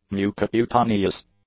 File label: "mucocutaneous", English; adjectives